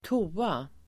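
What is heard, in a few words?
"toa", Swedish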